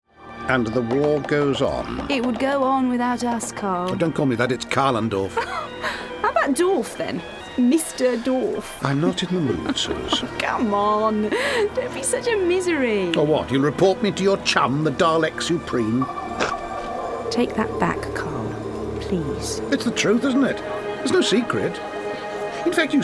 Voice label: trailer; dalek emp